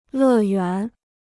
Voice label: "乐园", Chinese

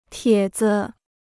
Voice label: "帖子", Chinese

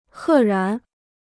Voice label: "赫然", Chinese

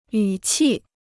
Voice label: "语气", Chinese

